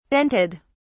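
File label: "dented", British English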